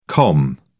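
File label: "com", British English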